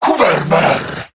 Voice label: Cover Me Corrupted Grineer Male